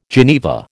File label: "geneva", American